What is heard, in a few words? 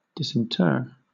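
"disinter", British English; pronunciations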